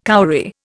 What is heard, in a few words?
"cowrie", Indian